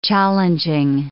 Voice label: "challenging", English